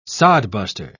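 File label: "sodbuster", British English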